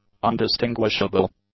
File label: "undistinguishable", English; adjectives